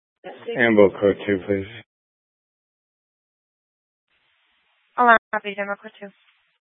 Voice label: Fire Departments Mesa Simulcast; TOPAZ